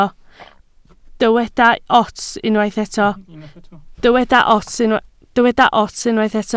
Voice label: "ots", Welsh

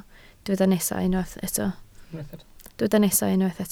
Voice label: "nesaf", Welsh

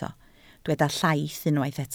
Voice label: "llaith", Welsh

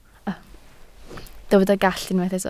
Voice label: "gallt", Welsh

